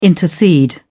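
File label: "intercede", British English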